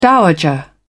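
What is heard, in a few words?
"dowager", British English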